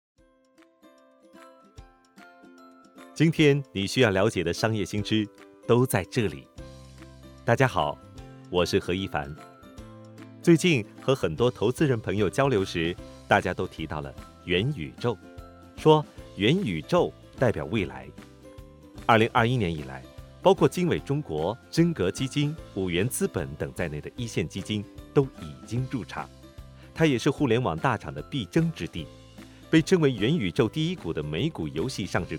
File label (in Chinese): 谈商业, 普通话, 男, 青年